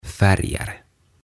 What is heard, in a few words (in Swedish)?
"Färger", "Värit"